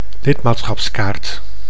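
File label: "lidmaatschapskaart", Dutch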